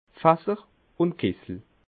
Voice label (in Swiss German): "fasser", alsace